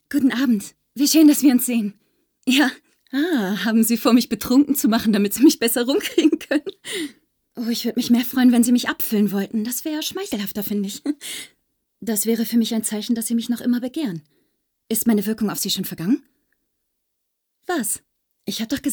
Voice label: Synchron Realfilm, German; RealSynchron Mix; Lip-Sync (Synchron)

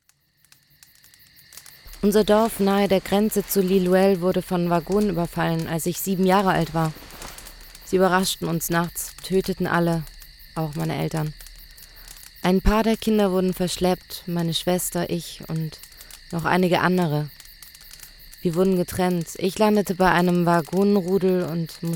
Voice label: hoerbuch

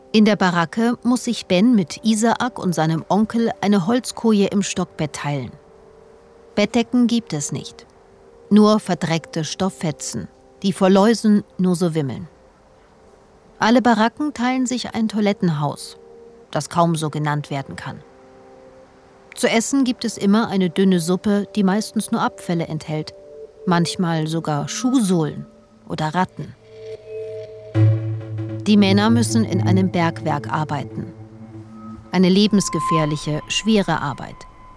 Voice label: Podcast; Die Befreiung

